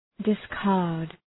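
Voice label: "discard", English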